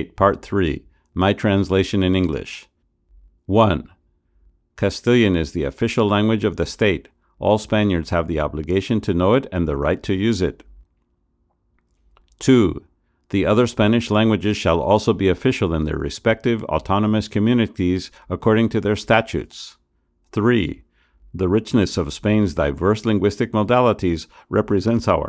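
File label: Apogee Hype Mic noise reduction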